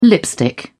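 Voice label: "lipstick", British English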